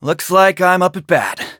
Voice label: eng match start; Ice Pop